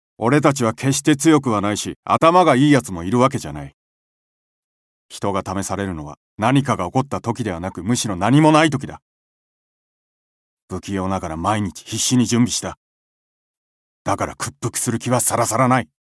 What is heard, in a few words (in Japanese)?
決意の固い青年